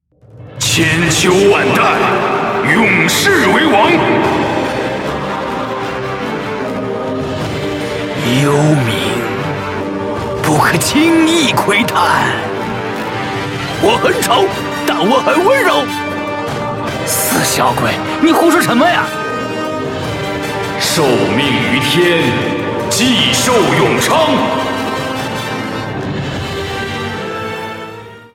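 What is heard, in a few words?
other, male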